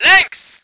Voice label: voice ger vehicle